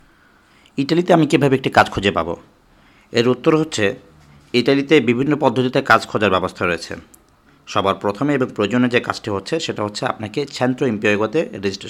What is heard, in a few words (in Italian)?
come trovare lavoro, bangla